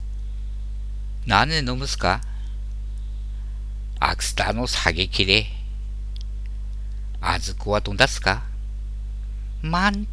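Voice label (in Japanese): コウメとお得意, 秋田弁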